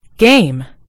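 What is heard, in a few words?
"game", American English; gcompris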